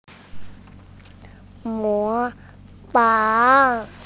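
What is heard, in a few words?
"imitate", Mandarin Chinese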